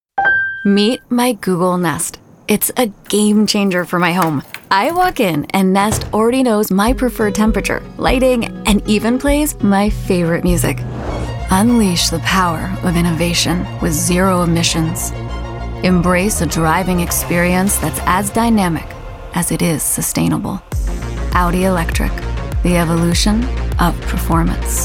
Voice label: english american commercial; Englisch (US); Vereinigte Staaten